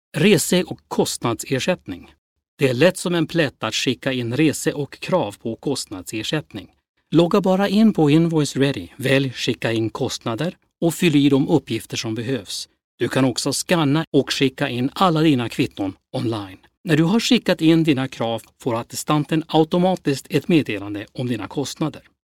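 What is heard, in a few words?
Swedish, male, e-learning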